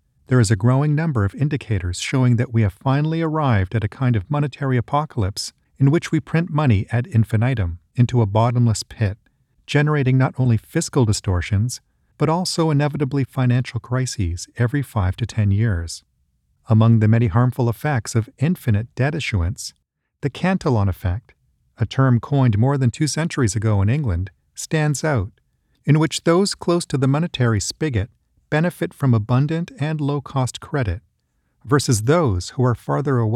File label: Audio Book; professional voice talent based in Canada